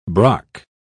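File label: "brock", British English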